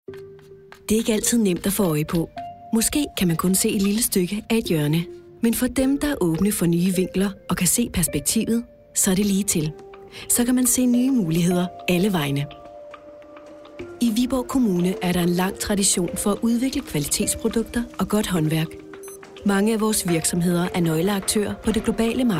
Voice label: dk informative